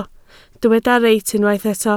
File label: "reit", Welsh